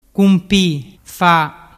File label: "cumpifa", dialettu